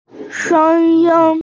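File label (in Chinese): "sheng1yin1", Mandarin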